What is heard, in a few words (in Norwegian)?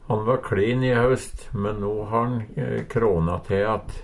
"kråne te", Numedalsmål; Verb